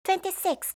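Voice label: "twenty-six", English; eng suuji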